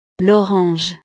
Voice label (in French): "l orange", frances; frutas